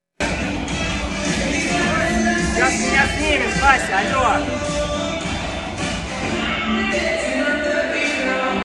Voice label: vocals